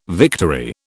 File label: "victory", British